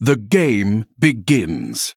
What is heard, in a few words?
Male Chess Piece Play